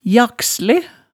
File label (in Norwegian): "jaksle", hemsedalsmaalet